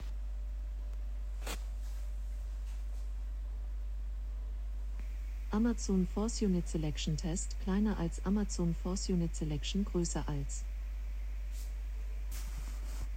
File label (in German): Alexa, Alexa redet wirres Zeug